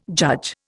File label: "judge", Indian